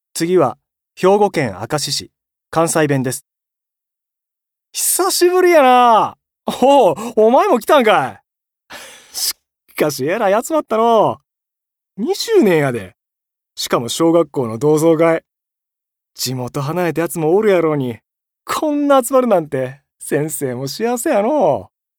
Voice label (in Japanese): 方言